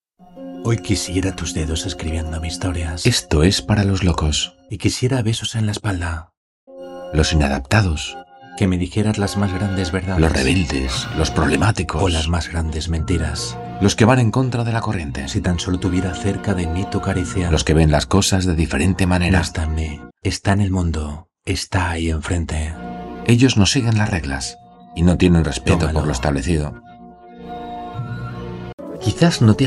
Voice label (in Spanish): natural